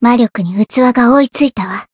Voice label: voice jp